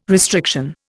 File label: "restriction", Indian